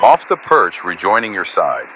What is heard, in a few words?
Radio pilot Wingman Rejoin